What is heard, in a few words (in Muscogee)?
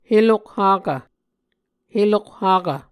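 "helokhakv", Muscogee word; Mvskoke; SIL International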